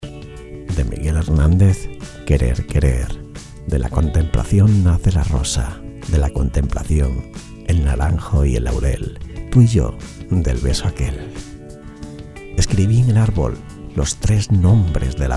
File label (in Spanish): poema romantico; miguel hernandez; querer